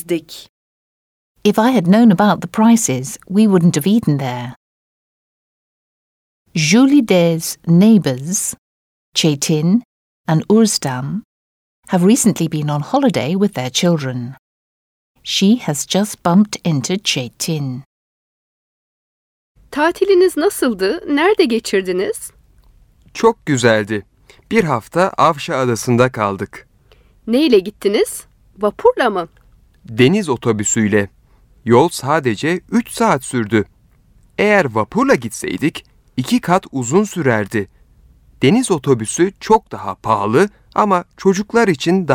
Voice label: Dialogue, Turkish; Complete Turkish Teach Yourself